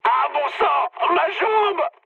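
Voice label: French damaged pilot mood med; War Thunder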